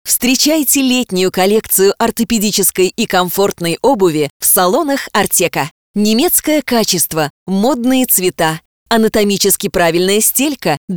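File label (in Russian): bright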